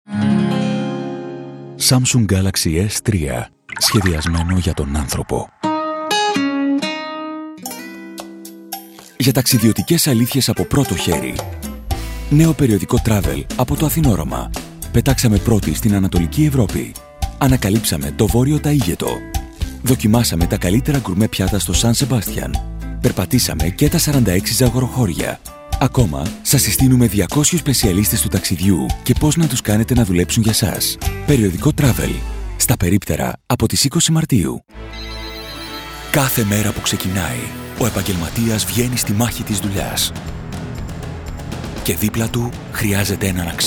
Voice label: Corporate Greek

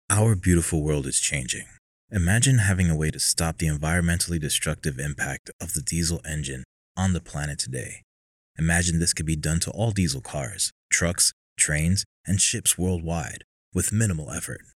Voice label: Tech Promo

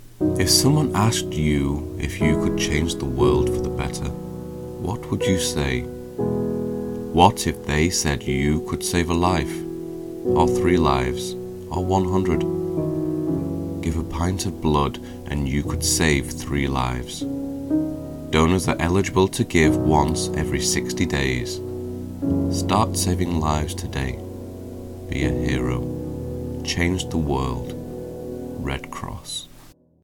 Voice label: with Music; Red Cross Project

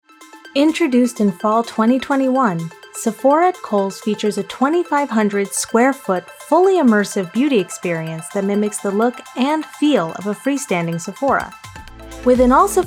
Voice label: Corporate Industrial VO

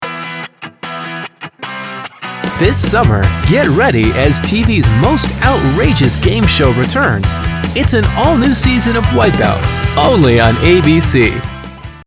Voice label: Promo; Wipeout

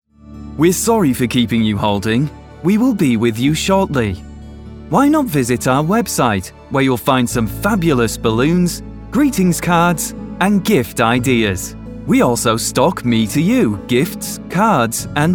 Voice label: IVR On Hold

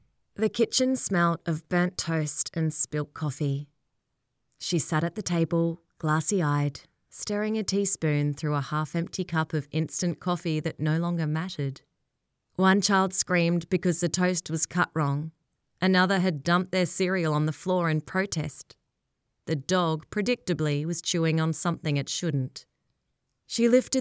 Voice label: Audiobook Excerpt Alcholism, female voice over

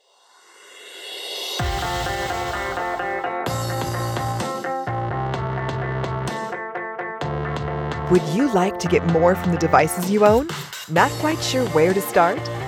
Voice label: Podcast

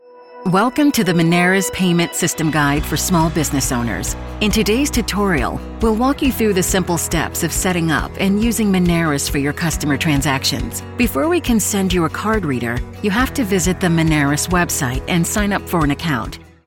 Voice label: Radio / TV Imaging, Bright Upbeat, Female Voice; MONERIS

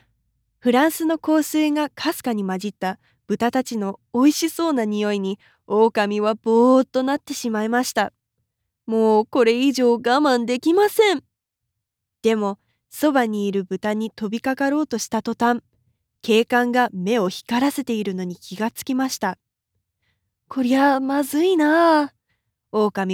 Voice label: Kids Audio Book JPN

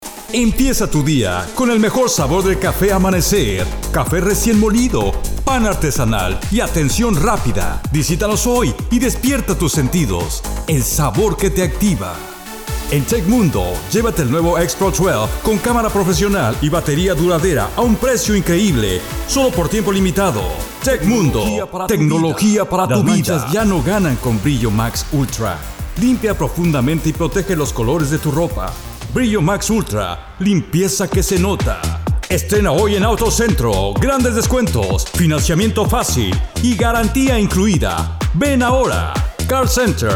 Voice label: Male

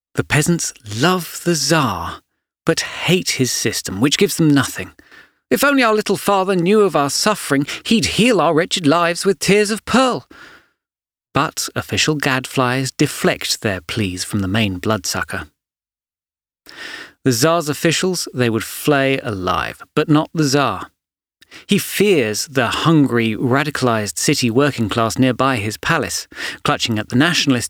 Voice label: drama

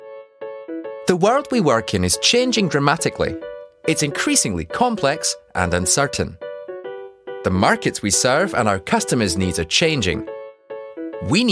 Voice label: Scottish; Diageo

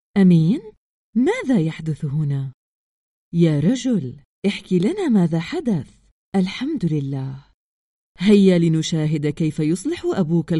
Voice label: kids

